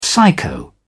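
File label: "psycho", British English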